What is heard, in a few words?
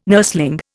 "nursling", Indian